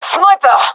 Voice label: sees sniper, gendarmerie fem; vo